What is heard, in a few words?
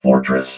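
"fortress", robot voice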